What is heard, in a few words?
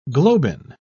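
"globin", English, British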